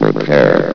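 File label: "repair", male synthesized announcer voice; hlvox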